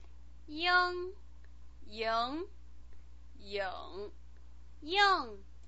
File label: "ing", Chinese pinyin